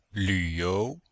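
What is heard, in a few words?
"lv3you2", Chinese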